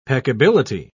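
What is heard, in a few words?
"peccability", British English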